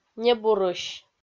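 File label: "небурысь", Komi-Zyrian, female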